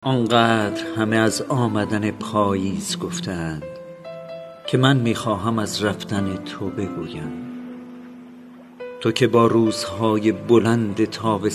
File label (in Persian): نمونه صدای آقای; آواگر